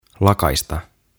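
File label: "Lakaista" Finnish; Sweep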